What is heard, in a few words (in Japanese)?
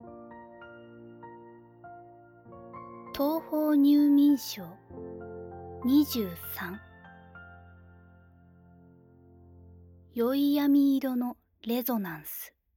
タイトルコール